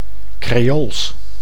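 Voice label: "creools", Dutch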